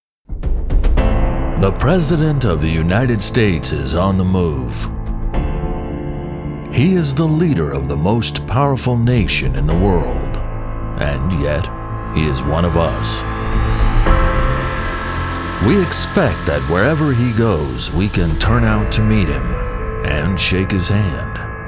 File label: DOCU; Secret Service Grand Central Composite